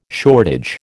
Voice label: "shortage", American